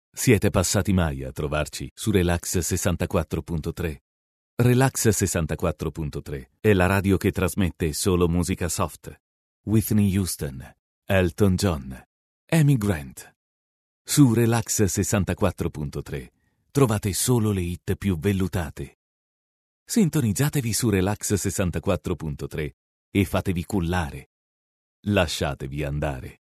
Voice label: Italian M Narration

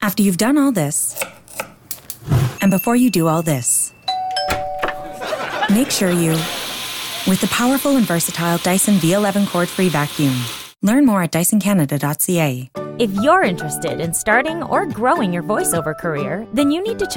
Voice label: English Canadian F Commercial; accent of canada

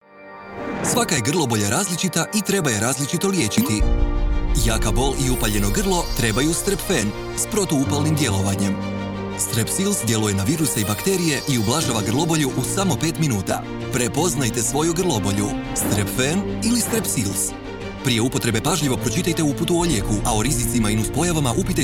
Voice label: Croatian M Commercial; voice over

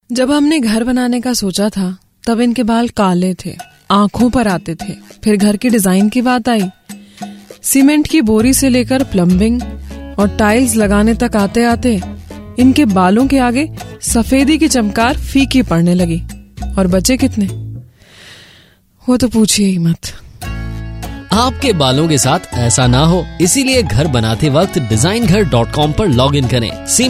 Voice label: Hindi F Explainer; voice over

